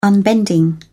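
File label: "unbending", British English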